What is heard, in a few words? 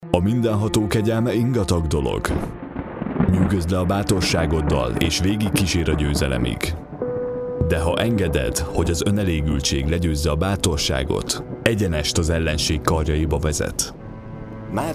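Hungarian male; lektoring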